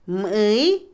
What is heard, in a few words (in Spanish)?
habitar, Otomí, mujer; Casa; INALI